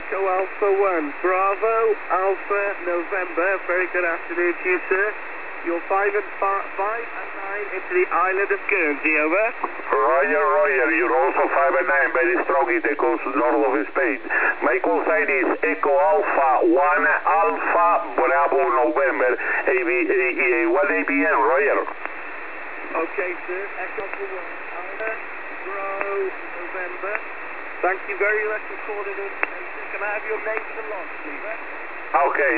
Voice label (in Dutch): zendamateurs USB